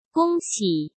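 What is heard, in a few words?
"恭喜", Mandarin Chinese; hsk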